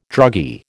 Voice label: "druggy", American

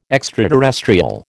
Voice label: "extraterrestrial", American

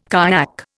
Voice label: "kayak", Indian

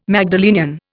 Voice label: "Magdalenian", Indian

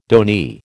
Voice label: "donee", American

